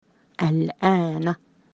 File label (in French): maintenant, arabe